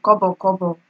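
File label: "kobokobo", Nigerian Pidgin, female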